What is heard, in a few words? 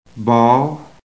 "BALL", phonetic